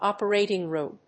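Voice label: "operating room", English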